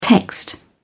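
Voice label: "text", English, British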